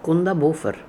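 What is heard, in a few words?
"Kundabuffer", pronunciations; Fourth Way; Beelzebub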